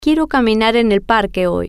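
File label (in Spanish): Quiero Caminar Parque Hoy, spanish, female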